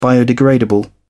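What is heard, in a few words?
"biodegradable", English, uk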